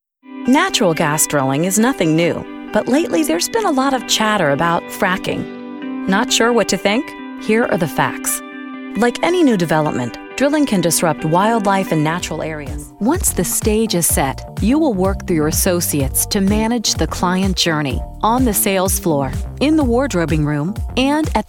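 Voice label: F EN US narration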